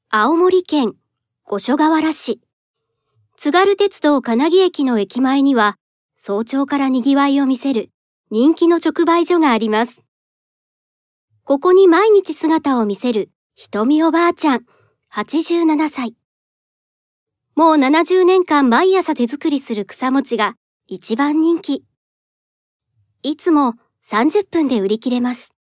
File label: narration